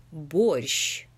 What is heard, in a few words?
Pronunciation Borscht